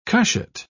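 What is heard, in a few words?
"cushat", British English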